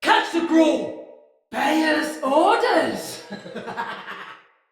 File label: Sight Lost Shout, Male, en; Battle; Barks; Dialog; Sinecure Banneret